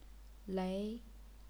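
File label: "lei", Chinese, second tone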